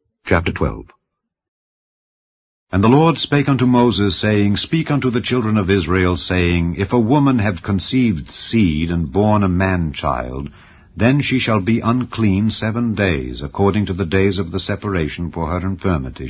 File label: leviticus english